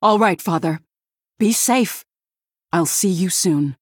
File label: Female Human Victory